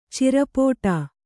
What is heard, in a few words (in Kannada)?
"ಚಿರಪೋಟಾ", Kannada